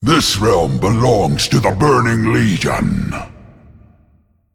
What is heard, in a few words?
Male Demon Intro; Archimonde